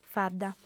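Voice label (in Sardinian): "fadda", female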